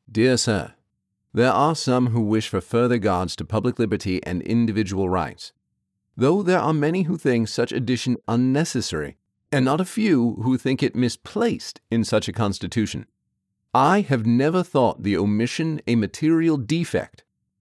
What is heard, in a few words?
Character Expressive